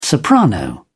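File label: "soprano", British English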